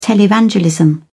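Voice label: "televangelism", British English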